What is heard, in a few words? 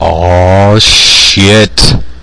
awww shit, MALE SPOKEN WORD; MALE MISC